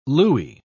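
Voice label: "looie", British English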